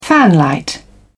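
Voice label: "fanlight", British English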